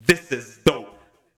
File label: Male FX Vocals; Houseworx